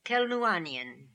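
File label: "Kelnuanian", pronunciations; Fourth Way; Beelzebub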